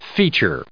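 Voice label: "feature", US English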